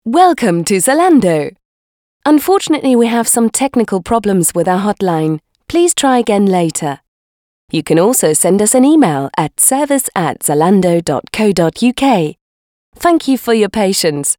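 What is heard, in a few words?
British telephone, englisch; telefon; sprecher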